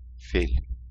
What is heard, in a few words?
Elephant in Turkish